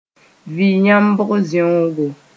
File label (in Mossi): "vɩ-yãmbg zeongo", moore